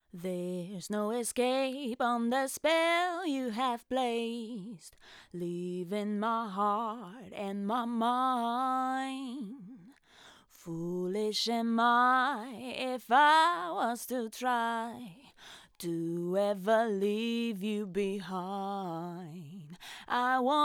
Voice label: Sontronics VOC U87